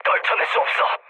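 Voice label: Korean aircraft damaged mood low; speech; War Thunder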